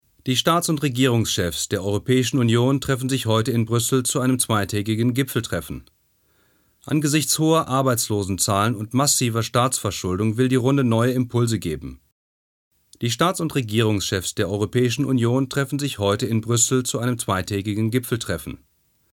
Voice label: Nachrichten; LOFT VOICES

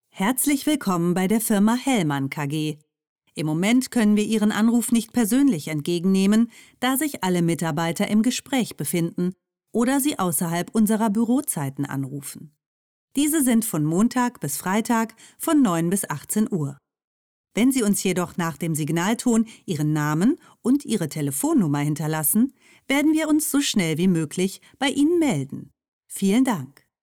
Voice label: Anrufbeantworter; LOFT VOICES

